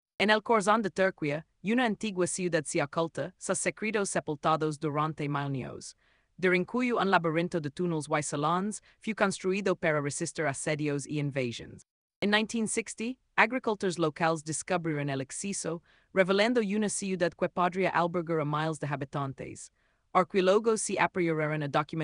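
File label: voiceover, Spanish; The Mysterious Derinkuyu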